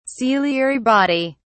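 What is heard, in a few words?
"ciliary body", British English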